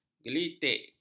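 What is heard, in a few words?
"gelitik", Malay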